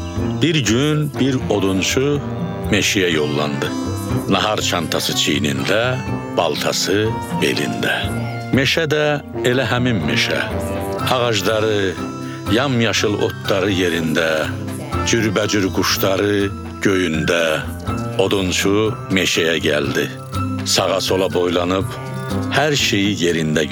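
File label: Voice Azerbaijani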